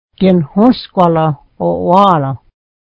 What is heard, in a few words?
beef, mohawk